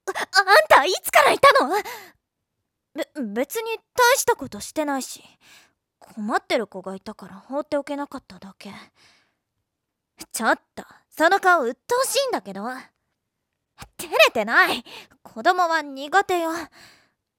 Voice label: 不器用なツンデレ; jtb-ent-voice

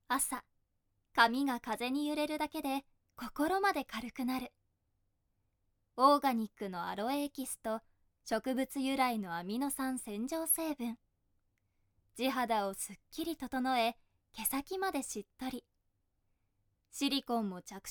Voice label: ナレーション; jtb-ent-voice

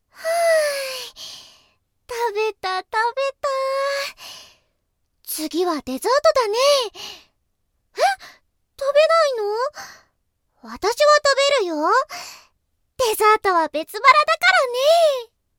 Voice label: アホの子 食いしん坊 中学生 14歳; jtb-ent-voice

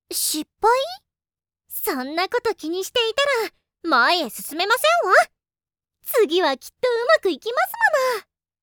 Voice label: お転婆お嬢様 前向き 好奇心旺盛 12歳; jtb-ent-voice

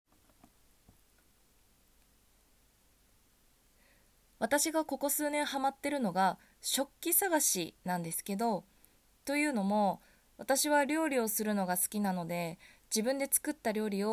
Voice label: フリートーク

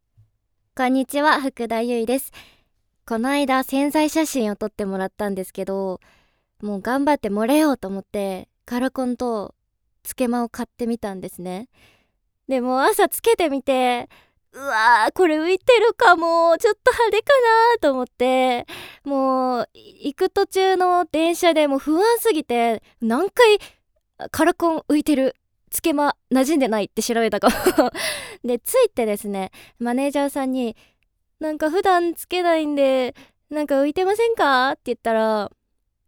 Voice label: フリートーク

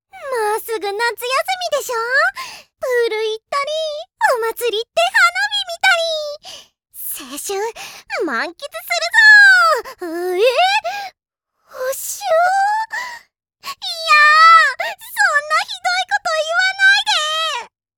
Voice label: 中学生 アホの子 明るい 13歳; jtb-ent-voice

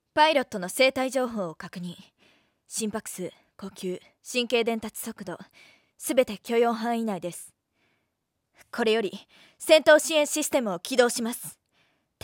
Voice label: しっかり者のオペレーター; jtb-ent-voice

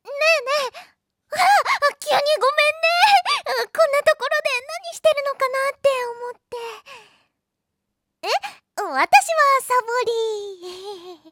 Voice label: ふわふわした女の子; jtb-ent-voice

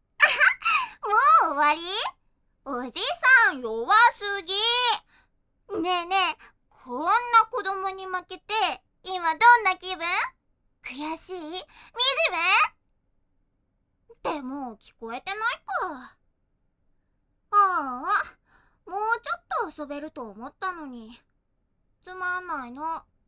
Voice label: 生意気な子供; jtb-ent-voice

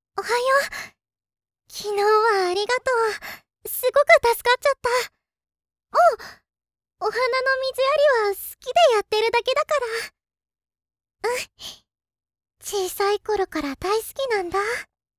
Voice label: 中学生 優等生 静かな子 14歳; jtb-ent-voice

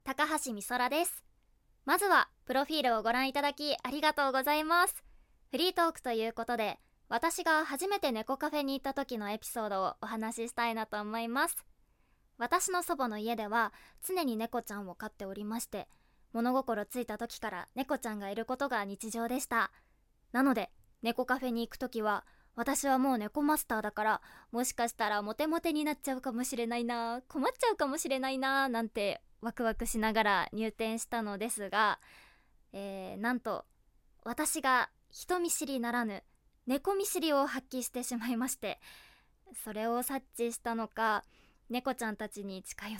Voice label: フリートーク 猫カフェについて

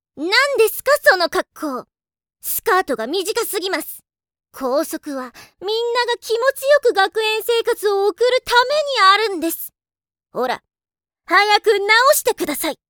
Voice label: くせもの風紀委員 几帳面 17歳; jtb-ent-voice

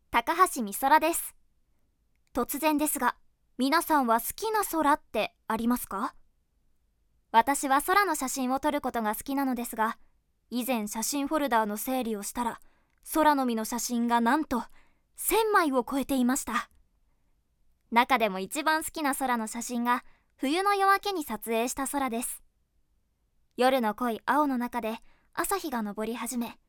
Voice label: フリートーク; 空について

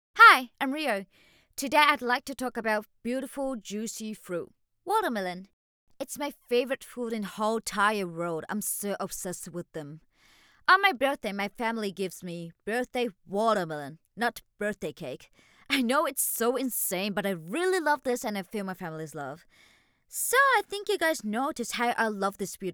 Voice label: フリートーク 英語; 好きな食べ物について; jtb-ent-voice

